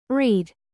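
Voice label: "produce", verb, British; read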